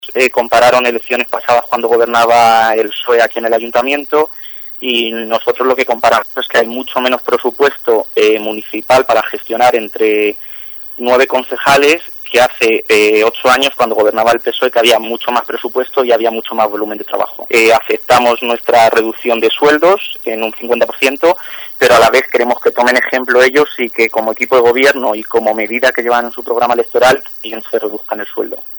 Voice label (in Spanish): noticias